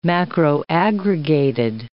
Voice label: "macroaggregated", English